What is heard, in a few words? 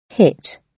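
"hit", British English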